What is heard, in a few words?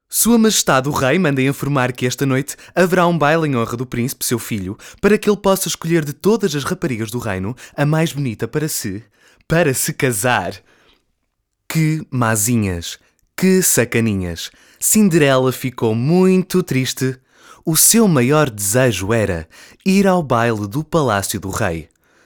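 Character, Portuguese, European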